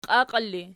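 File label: "qiaqiera" ergative, Archi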